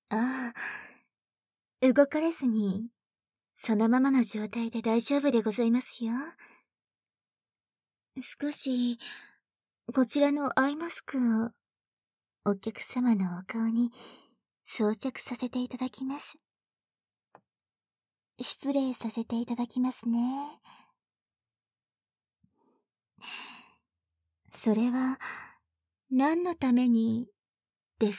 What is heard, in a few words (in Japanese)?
回春マッサージコース SEなし, ASMR